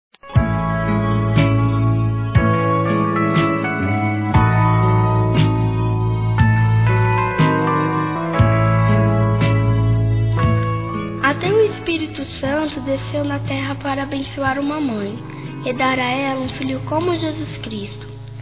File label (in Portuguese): Aniversário Mãe Infantil Feminino; Telemensagens